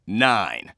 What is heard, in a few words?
Announcer Count; 09; Evan